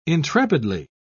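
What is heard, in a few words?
"intrepidly", English, uk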